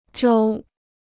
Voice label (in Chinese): "舟", Chinese